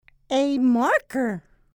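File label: "marker", English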